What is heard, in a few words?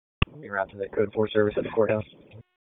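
Police Downtown District Dispatch, p25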